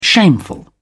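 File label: "shameful", British English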